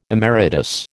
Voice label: "emeritus", American